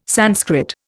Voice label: "Sanskrit", Indian